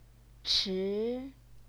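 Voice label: "chi", second tone, Chinese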